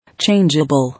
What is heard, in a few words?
"Changeable", English